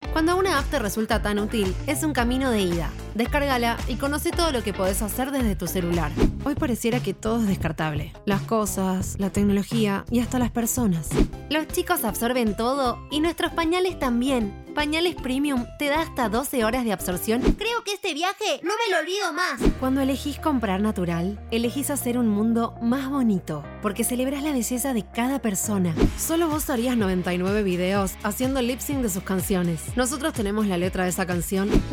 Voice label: spanish argentinian commercial; Spanisch (Lateinamerika) Spanisch (Argentinisch); Argentinien